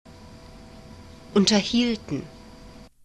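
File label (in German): "unterhielten", German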